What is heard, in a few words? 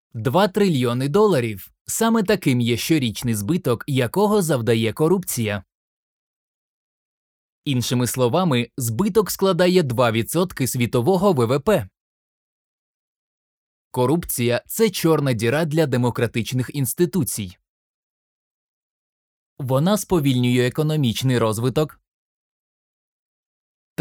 Training regarding corruption in Ukrainian